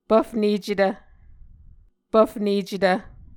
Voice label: "pvfnecetv", Muscogee

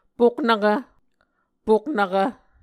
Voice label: "poknvkv", Muscogee

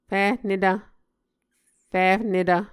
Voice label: "fihnetv", Muscogee

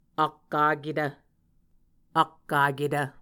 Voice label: "akkaketv", Muscogee; Mvskoke Words with Repeated Consonants; A Dictionary of Creek/Muskogee; SIL International

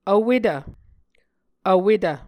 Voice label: "vwetv", Muscogee

